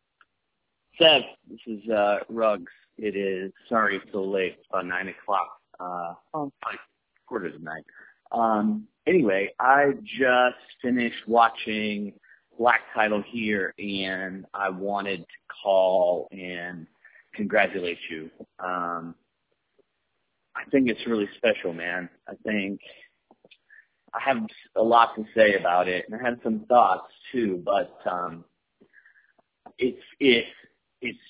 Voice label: voicemail; BirdsAreSmart Pictures